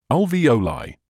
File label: "alveoli", medical english uk; al-vee-OH-ligh; ˌæl.viːˈəʊ.laɪ